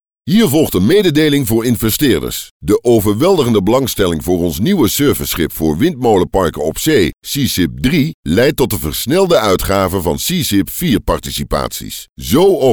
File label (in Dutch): landelijke radiocommercial; Seazip